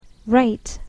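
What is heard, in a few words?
"rate", English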